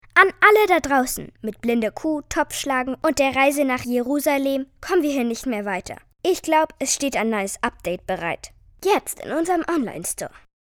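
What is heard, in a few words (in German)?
Mädchen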